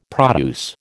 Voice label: "produce", American